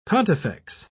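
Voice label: "pontifex", British English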